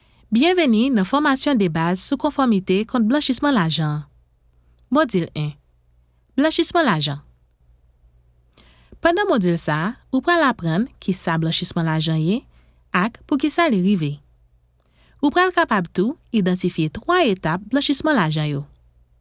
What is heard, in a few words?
Employee Training Video